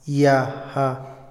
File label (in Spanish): vomitar, Otomí, hombre; INALI